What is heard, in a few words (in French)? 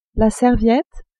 "serviette", French; cuisine